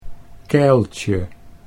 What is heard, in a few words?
"keiltje", Dutch